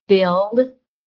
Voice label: "FIXED", american

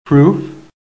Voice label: "PROOF", american; PHONETIC

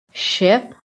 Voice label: "ship", american

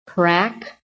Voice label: "CRACK", phonetic

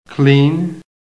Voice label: "CLEAN", american; PHONETIC

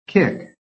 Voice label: "kick", american; PHONETIC

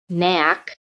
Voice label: "KNACK", PHONETIC

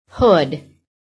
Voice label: "BAD", american

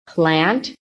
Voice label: "plant", American

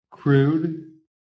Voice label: "CRUDE", PHONETIC

